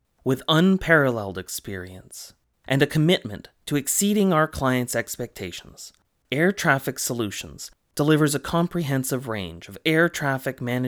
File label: Commercial; Air Traffic Solutions